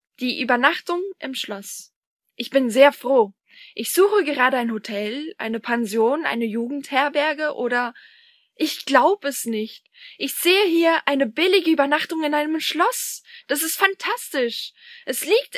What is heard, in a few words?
GERMAN